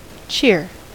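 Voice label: "cheer", American English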